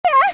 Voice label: confused

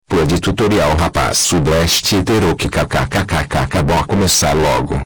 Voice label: polishhh

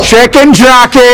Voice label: chicken jockey distorted

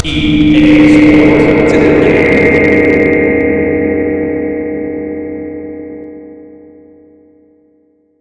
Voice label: extreme reverb; ea sports

